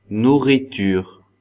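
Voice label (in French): "nouriture", French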